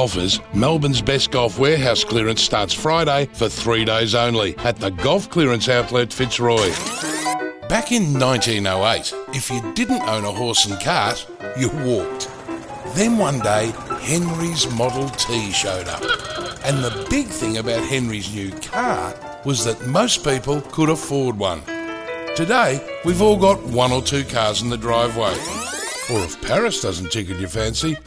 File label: Voice Over